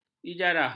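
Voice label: "ijarah", Malay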